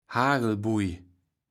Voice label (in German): "Hagelbuije", Platt